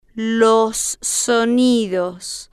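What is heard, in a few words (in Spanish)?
los sonidos, Spanish, female, slow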